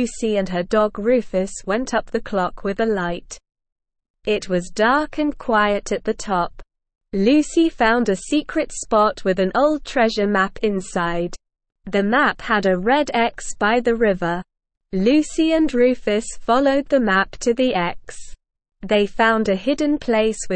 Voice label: ESL Short Stories for Kids Beginner SLOW Reading; The Secret of the Old Clock Tower